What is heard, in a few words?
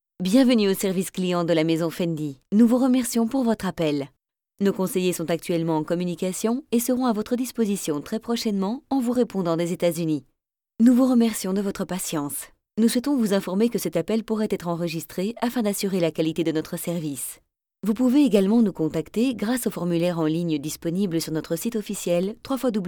french ivr